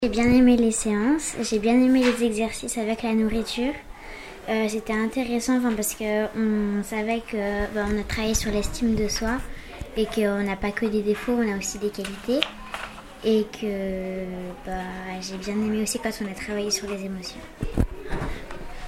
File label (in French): témoignage enfant estime de soi